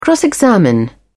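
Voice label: "cross examine", British English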